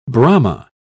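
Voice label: "brahma", English, British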